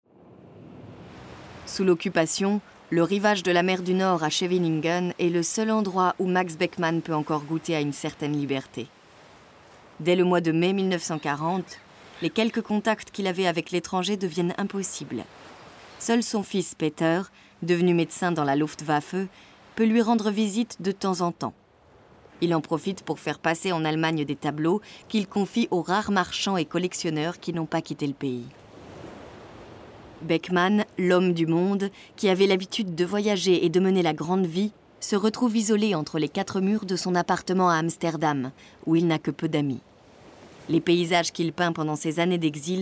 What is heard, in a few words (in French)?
COMMENTAIRE; Max Beckman